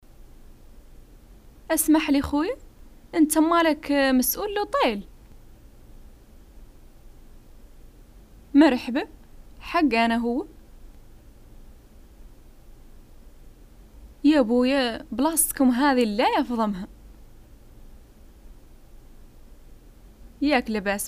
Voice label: dialogues, arabic, north african, hassaniya; hotel

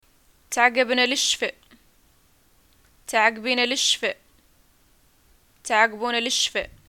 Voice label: greeting get well soon, arabic

